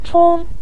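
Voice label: "chong" Mandarin Chinese, neutral tone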